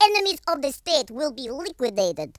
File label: you'll regret that, Russki